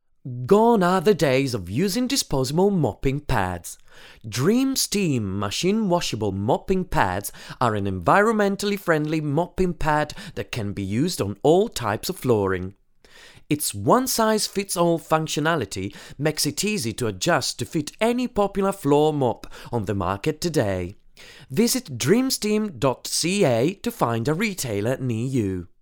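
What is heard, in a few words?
male voice, English accent, Italian; voice demos; GoLocalise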